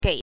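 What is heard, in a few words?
"gei", Mandarin Chinese, neutral tone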